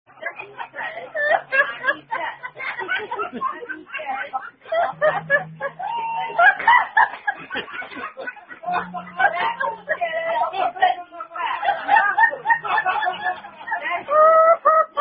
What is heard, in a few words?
Playing Fuzzy Duck, Ducky Fuzz Drinking Game Reciation By Woman, Drunkness Test